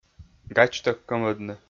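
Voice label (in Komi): "гачтӧг кӧмӧдны", Komi, male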